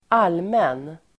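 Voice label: "allmän", Swedish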